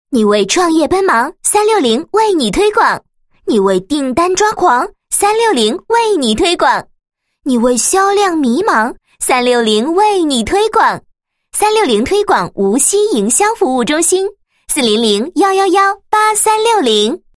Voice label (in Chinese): 女 推广中心